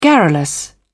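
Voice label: "garrulous", British English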